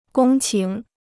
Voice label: "公顷", Chinese